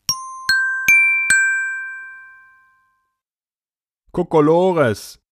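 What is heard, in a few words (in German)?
"Kokolores", German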